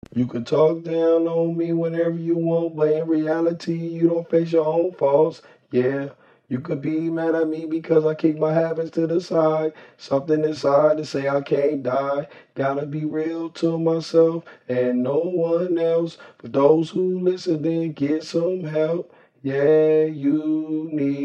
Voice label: hip hop 83 Em, acapellas